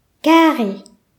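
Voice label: "square", Breton; gcompris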